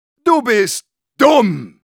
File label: taunts, de; Medic